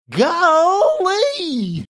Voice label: golly funny drawn out